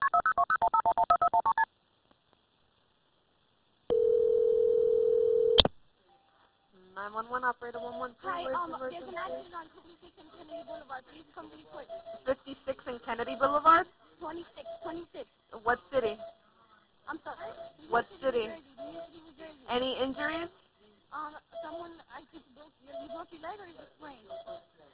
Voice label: 911 call